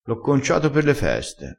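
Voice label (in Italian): "concia", vocabolario dialettale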